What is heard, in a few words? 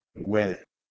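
"gwelh1", Proto-Indo-European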